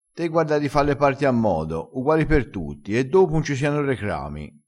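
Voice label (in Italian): "parte", dialettale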